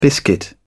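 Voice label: "biscuit", British English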